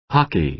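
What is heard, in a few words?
"hockey", American English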